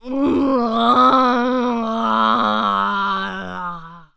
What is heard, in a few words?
Zombies Waitress Taunt; zps